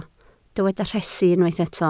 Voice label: "rhesi", Welsh

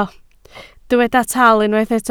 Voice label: "taal", Welsh

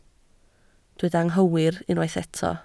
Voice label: "anghywir", Welsh

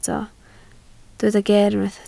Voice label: "geer", Welsh

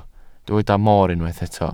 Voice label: "mor", Welsh

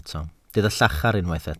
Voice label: "llachar", Welsh

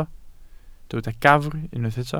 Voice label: "gafr", Welsh

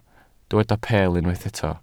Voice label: "peel", Welsh

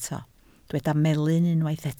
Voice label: "melyn", Welsh

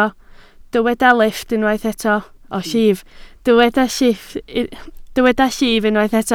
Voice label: "llif", Welsh

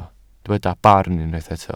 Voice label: "barn", welsh

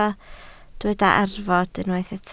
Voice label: "arfod", Welsh

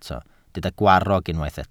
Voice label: "gwarrog", Welsh

